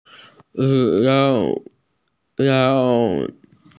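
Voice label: "breakfast", Mandarin; zao3can1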